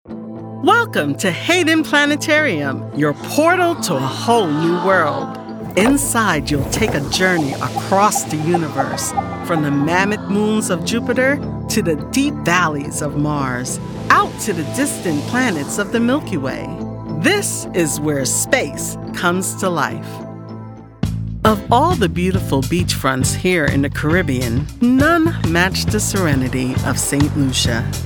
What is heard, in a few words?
Narration